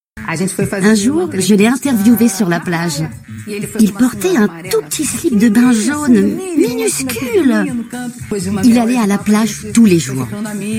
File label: voice over; IMAGES KAISER